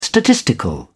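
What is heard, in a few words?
"statistical", British English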